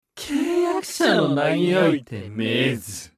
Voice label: 化物人ボイス, 召喚する1; 戦闘ボイス

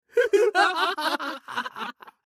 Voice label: 化物人ボイス, 勝利ボイス3; 戦闘ボイス

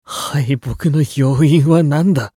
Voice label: 敗北ボイス1, クール系ボイス; 戦闘ボイス

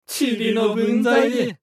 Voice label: 化物人ボイス, 防御2; 戦闘ボイス

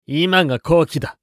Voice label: チャンス2, クール系ボイス; 戦闘ボイス

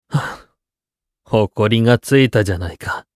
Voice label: 勝利ボイス1, クール系ボイス; 戦闘ボイス

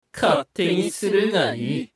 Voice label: 化物人ボイス, 助っ人される; 戦闘ボイス

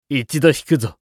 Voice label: 逃げる2, クール系ボイス; 戦闘ボイス

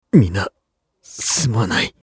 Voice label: 戦闘不能1, クール系ボイス; 戦闘ボイス

